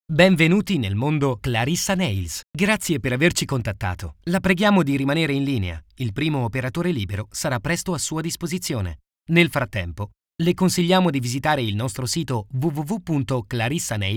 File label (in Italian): segreterie